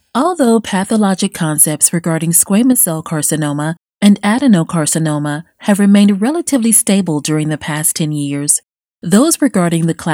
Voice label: medical narration